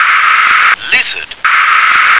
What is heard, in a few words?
"lizard", English